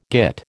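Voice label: "git", American English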